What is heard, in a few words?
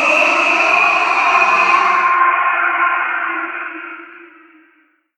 man die